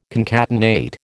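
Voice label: "concatenate", American English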